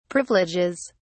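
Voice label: "privileges", British English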